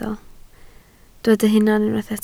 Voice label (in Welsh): "hunan" Welsh